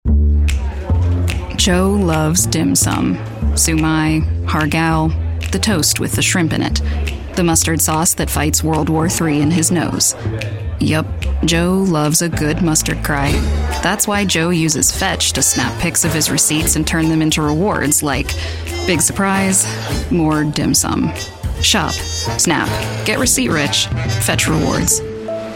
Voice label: Commercial